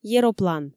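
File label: "ероплан", Komi, female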